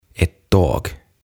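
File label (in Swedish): "ett tåg", Swedish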